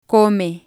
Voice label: "come", spanish